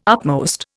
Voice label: "upmost", Indian

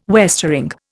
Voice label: "westering", Indian

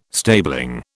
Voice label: "stabling", British

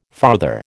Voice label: "farther", American

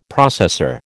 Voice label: "processor", American

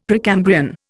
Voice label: "Precambrian", Indian; Dictionary

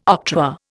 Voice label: "octroi", Indian

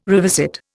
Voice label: "revisit", Indian

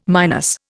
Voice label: "minus", Indian

